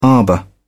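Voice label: "arbour", British English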